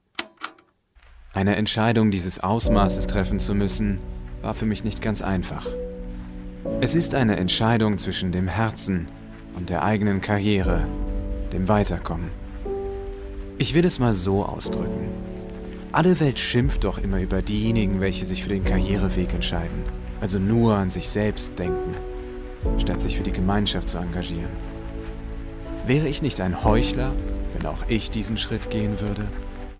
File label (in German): DE Trailer